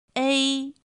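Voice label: "ēi", Chinese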